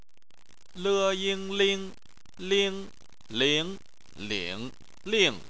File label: "ling", chinese